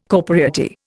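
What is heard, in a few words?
"corporeity", Indian English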